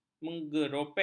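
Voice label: "mengeropeng", Malay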